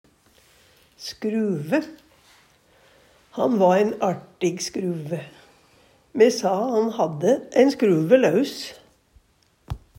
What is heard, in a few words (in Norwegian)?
skruve, Numedalsmål; Substantiv hankjønn; Handverk (metall, tre, lær)